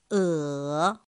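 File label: "ě", chino mandarin; pinyin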